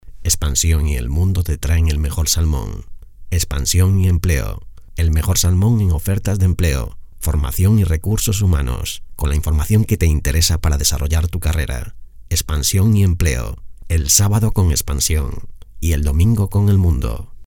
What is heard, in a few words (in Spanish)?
locucion congreso